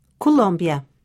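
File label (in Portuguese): "Colombia", Portugisisk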